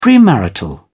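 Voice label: "premarital", English, British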